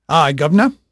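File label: English male, voice; Pirates Of The Caribbean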